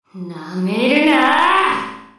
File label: Enemy Voice Damaged Attack; Abyssal Bamboo Princess